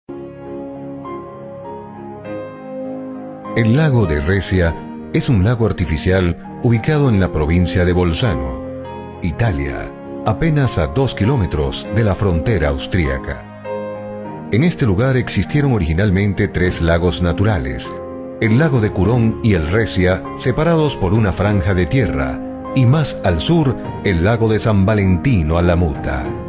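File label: doblaje, Venezuelan Spanish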